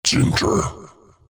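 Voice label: deny, chinese; Chaknight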